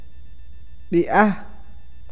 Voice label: nombre, awakateko

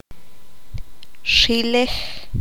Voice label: "cabello", Q'anjob'al

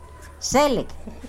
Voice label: Nawat palabras tierno; pipil

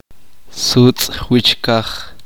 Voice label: uspanteko cielo de mal tiempo

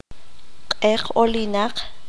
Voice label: "obscuridad oscuridad", qanjobal

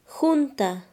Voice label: "lleno", Quechua Chanka

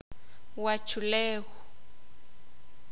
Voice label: "Mundo", Sakapulteko

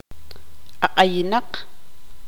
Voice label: "podrido", tzutujil

